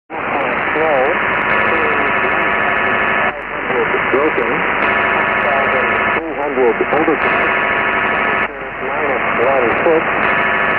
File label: noise canceller radio volmet